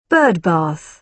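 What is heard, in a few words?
"birdbath", British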